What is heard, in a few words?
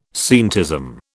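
"scientism", British English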